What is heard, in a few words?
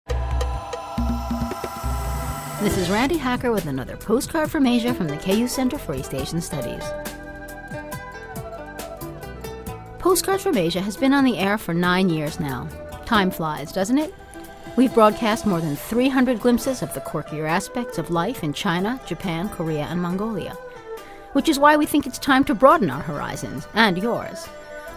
transition announcement